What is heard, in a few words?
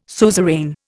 "suzerain", Indian